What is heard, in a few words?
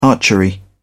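"archery", English, British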